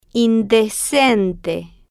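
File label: "indecente", slow, Spanish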